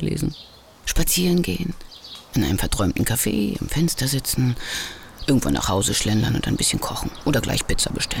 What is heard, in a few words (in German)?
erzaehlung sonntag